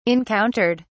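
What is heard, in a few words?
"encountered", British English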